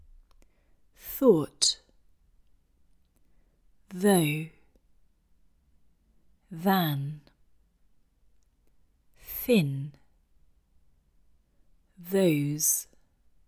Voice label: Italian, english pronunciation errors made by italian